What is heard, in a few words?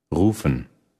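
"rufen", German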